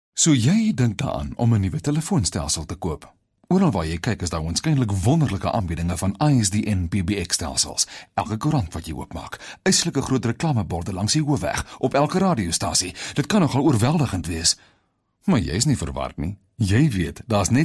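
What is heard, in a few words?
Afrikaans, Commercial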